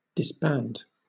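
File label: "disband", British English; pronunciations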